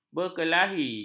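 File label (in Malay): "berkelahi", Malay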